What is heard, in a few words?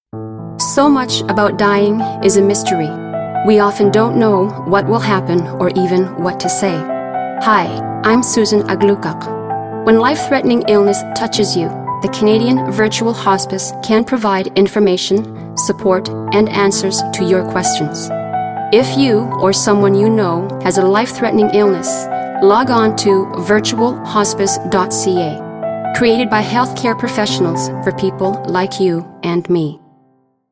English with Music